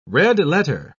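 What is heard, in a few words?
"red-letter", British English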